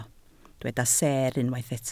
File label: "seer", Welsh